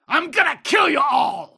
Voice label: Dwarf Kill Enemy